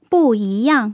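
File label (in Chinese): "bù yīyàng", Mandarin; tone changes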